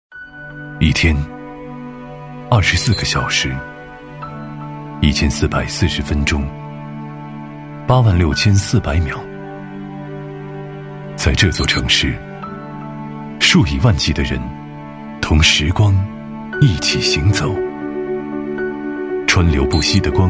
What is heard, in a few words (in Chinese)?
男 微电影 温暖走心; 交通局